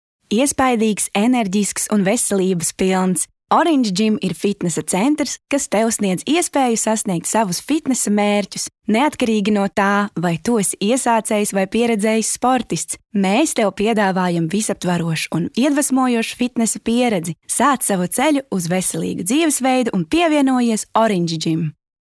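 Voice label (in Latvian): Latvian Reklāma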